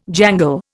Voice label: "jangle", Indian